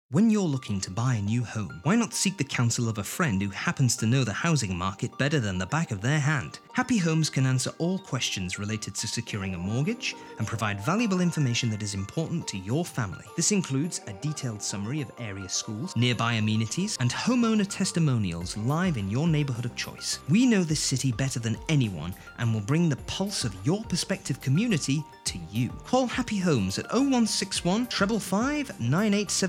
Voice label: Voice Over

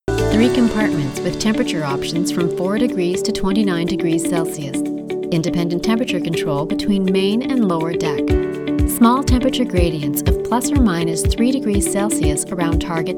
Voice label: Narration short

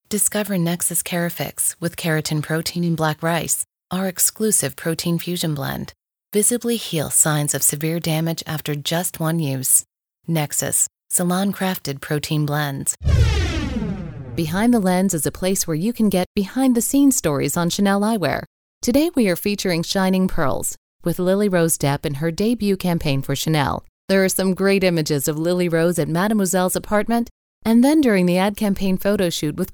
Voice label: Sophisticated

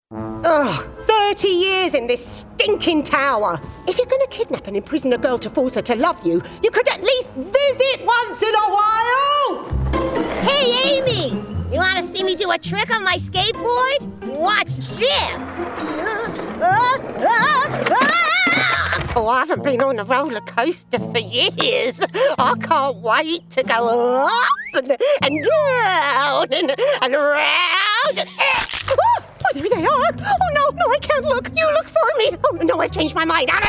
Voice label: Animation Voice Reel

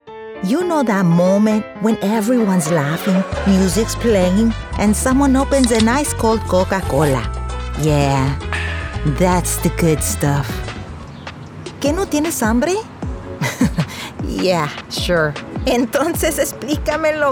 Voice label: Bilingual, Spanglish, Female; Bilingual Spanglish Demo Reel

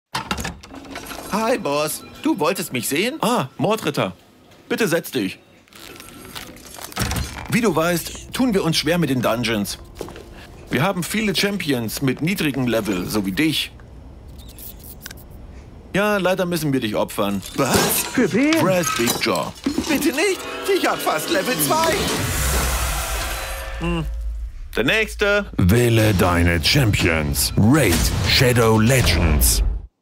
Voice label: Spot Online Cartoon voice; RAID Shadow Legends

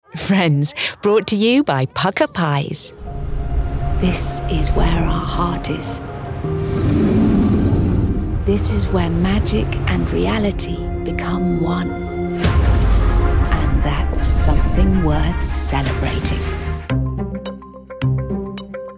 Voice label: Commercials; English (British)

